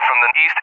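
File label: "from the east", English, USA; DCS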